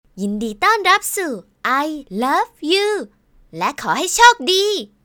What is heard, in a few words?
login thai